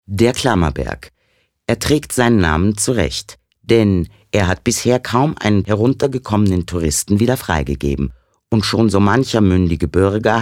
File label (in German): Kommentar Neutral